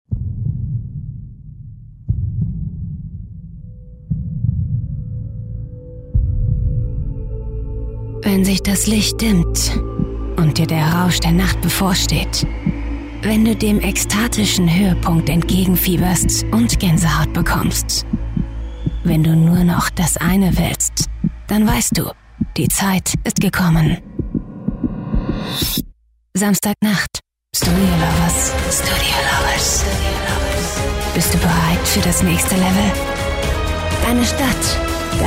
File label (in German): Sinnlich; Fremdtake